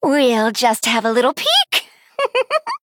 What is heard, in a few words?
Female Night Elf Play